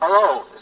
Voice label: "hello", male; expletives

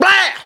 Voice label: "bow", Voice

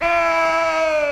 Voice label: "hey", male long

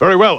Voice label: "very well", male; Voice

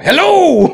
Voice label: "hello", voice; introductions

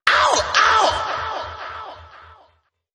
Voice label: ow ow male; ooh ahh; ow ouch

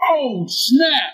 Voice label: "oh snap", expletives, g-rated swears

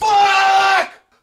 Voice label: "fuck", tourettes

